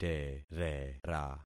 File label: "terera", concat syllables; Affixing experiment